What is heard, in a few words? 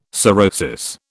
"sorosis", British